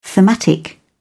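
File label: "thematic", British English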